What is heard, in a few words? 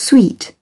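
"sweet", British English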